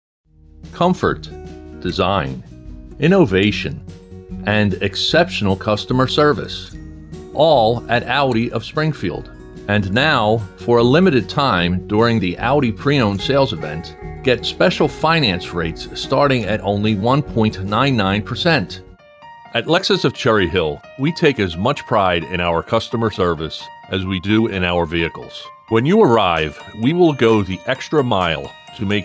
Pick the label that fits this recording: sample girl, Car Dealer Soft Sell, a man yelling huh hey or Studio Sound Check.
Car Dealer Soft Sell